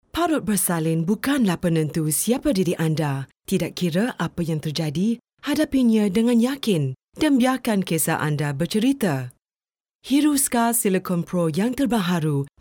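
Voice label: Bahasa Malaysia VO sample; Hiruscar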